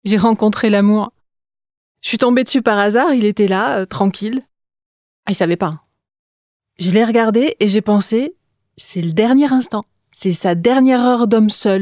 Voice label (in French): Voice over